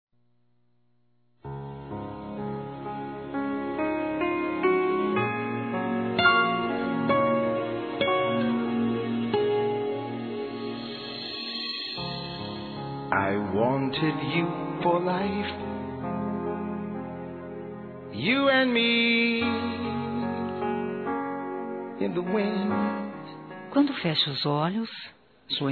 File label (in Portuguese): Feminino; Distante; Telemensagens 2017; Românticas